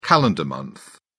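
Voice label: "calendar month", English, British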